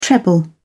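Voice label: "treble", British English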